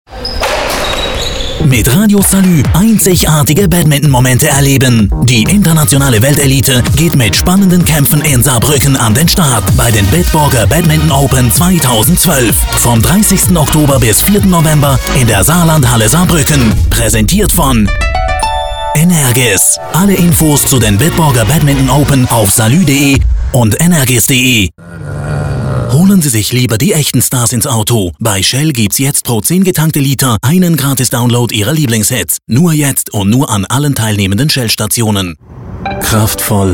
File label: male, German, commercial